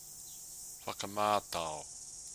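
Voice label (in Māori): "whakamatao", Māori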